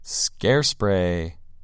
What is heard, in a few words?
"scare" "spray"